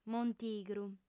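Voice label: "montigru", female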